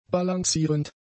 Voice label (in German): "balancierend", German; adjectives